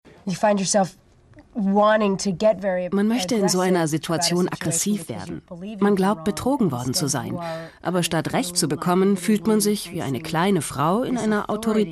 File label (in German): magazin voice over; ard